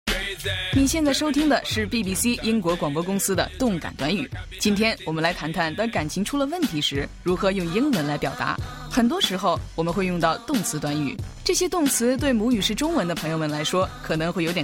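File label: Chinese, General; GoLocalise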